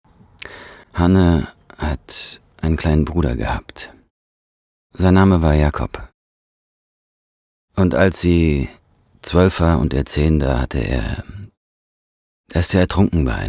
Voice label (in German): sanft emotional synchron; Gustav